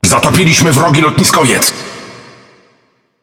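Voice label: Speech Destroy Enemy Aircarrier, Polish; World Of Warships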